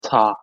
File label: "茶" Hakka; thee-in-andere-talen